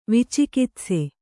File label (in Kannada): "ವಿಚಿಕಿತ್ಸೆ", kannada